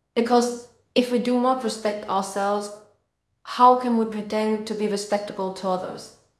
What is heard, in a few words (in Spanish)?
Voice Acting es F0